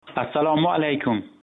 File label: hello uzbek